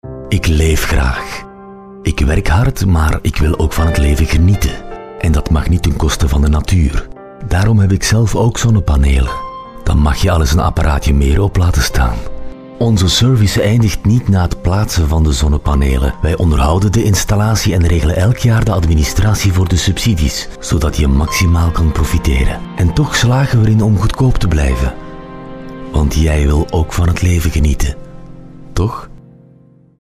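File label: flemish male commercial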